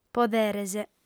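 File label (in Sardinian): "poderes", female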